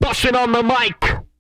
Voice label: bustin, Vocal, R&B Dance, hip-hop; TB HIP-HOP